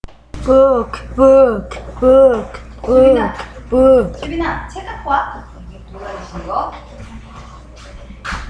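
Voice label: "book", spoken word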